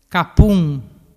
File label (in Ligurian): "capun", dialettu